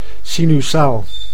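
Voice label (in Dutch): "sinusaal", Dutch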